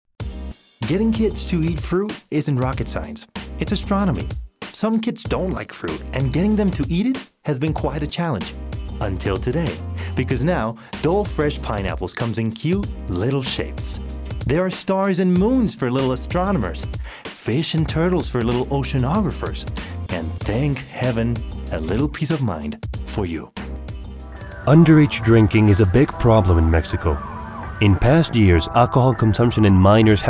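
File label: American English, Commercial; voice demos; GoLocalise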